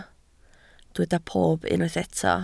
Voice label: "pob", Welsh